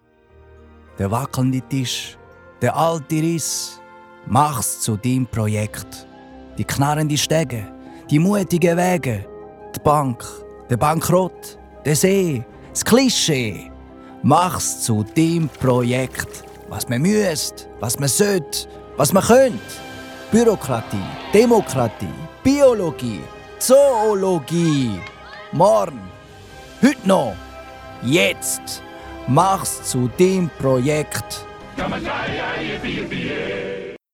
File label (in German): Schweizer Deutsch; Werbung; Hornbach